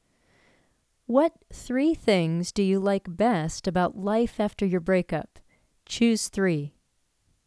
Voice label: english sample